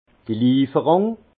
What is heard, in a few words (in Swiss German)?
"lieferung", alsace; vin; livraison